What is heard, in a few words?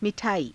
"mi thaaii", Hindi